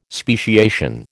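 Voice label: "speciation", American English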